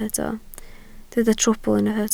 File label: "trwbl", Welsh